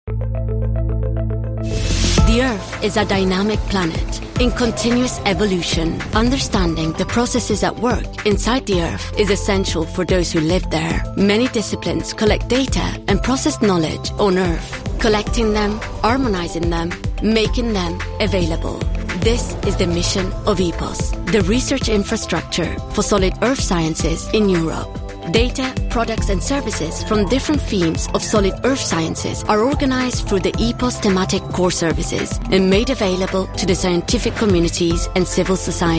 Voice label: female english and italian english with soft european accent corporate; video